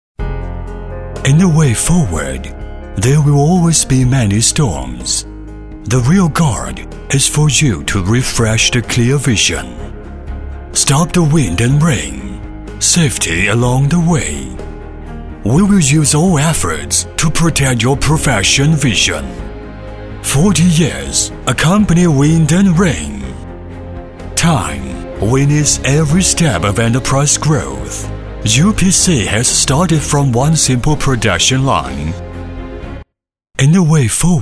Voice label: 英男, 专题, 英文, 外国大片感; View Guard

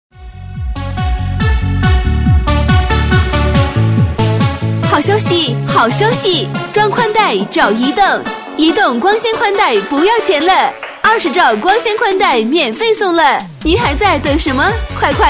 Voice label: 女 广告 移动手机宽带, 国语女声配音 彩铃广告

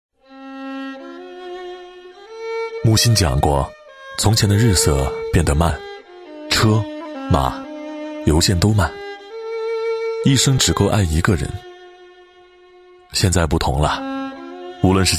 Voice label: 男 专题, 国语男声配音; 微电影情谊; 交广主播

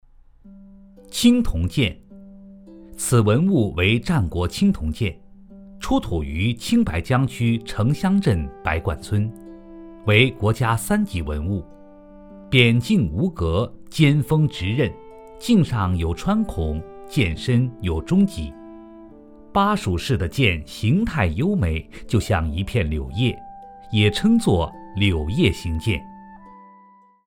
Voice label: 男 文物介绍

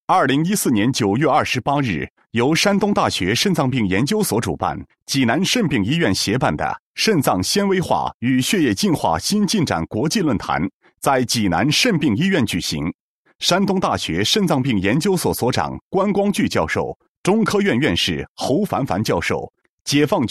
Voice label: 新闻, 国语男声配音, 男高音; 肾脏国际论坛举行

